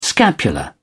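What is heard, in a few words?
"scapula", British English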